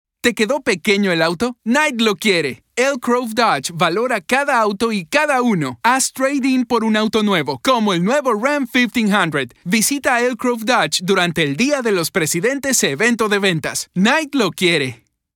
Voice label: spanish latin american automotive